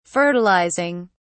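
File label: "fertilizing", British English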